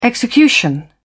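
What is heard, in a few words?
"execution", British English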